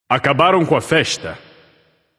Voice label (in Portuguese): alert notify kill streak breaker, vox caster portuguese